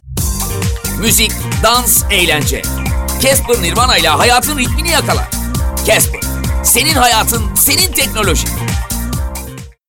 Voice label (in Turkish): enerjik tempolu; seslendirme